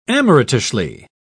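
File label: "amateurishly", English, British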